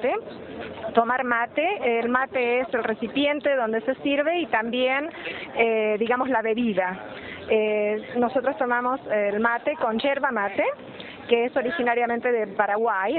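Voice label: Argentina Buenos Aires Female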